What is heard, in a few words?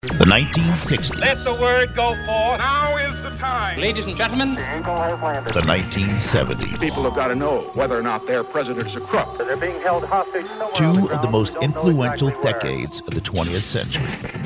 Dance Party Promo